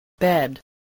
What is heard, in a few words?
"bed", English